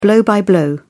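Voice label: "blow by blow", British English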